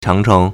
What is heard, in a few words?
"chang2cheng2", Chinese